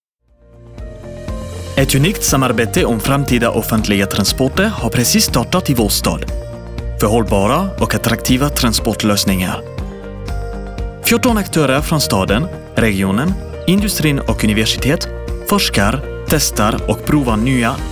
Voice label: swedish corporate videos

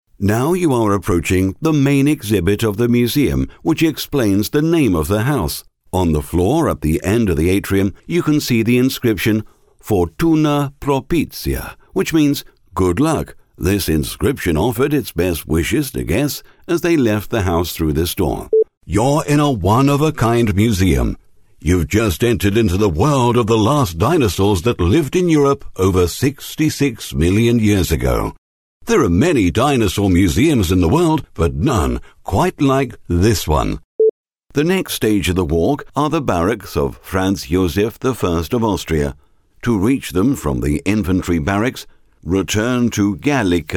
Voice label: english british audio guides; actor de doblaje británico nativo radicado en el Reino Unido

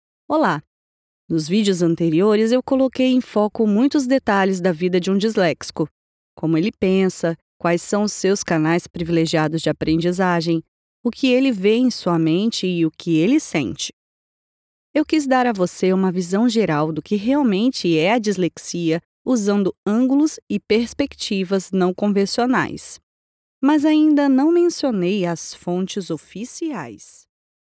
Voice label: portuguese brazilian e-learning

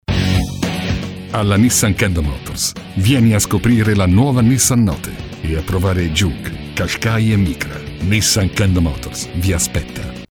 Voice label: italian automotive

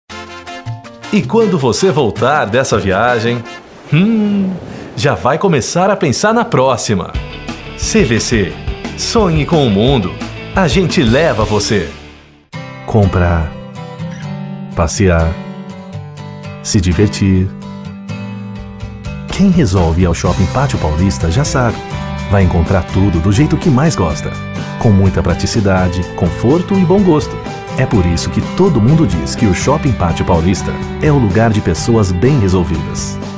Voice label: portuguese brazilian commercial

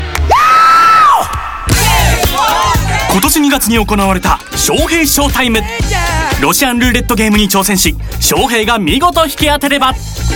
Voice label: japanese tv shows

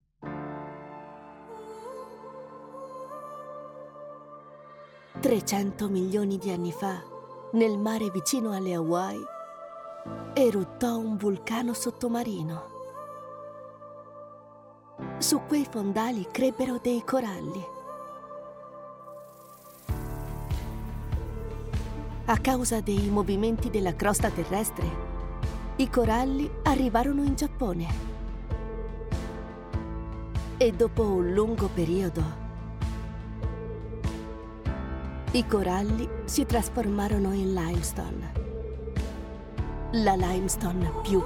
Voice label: italian documentaries

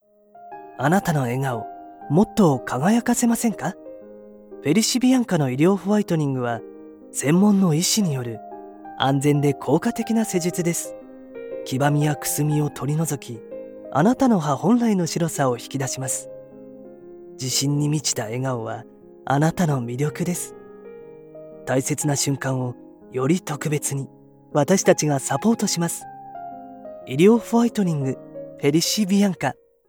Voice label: japanese medical narration, japanese voice actors

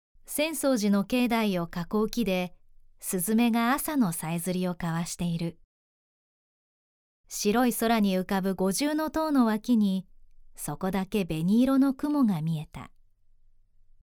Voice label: japanese audiobooks

